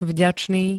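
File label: "vďačný", Slovak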